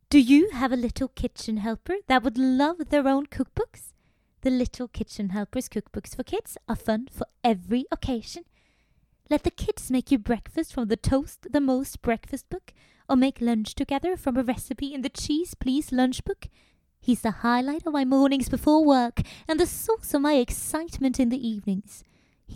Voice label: English, Norwegian, Scandinavian, Female, 20s-40s, voiceover